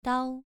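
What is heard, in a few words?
"dao" Mandarin, second tone, pronunciation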